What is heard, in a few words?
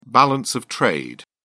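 "balance of trade", British English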